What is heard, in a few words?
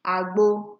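"agbo", Nigerian Pidgin, female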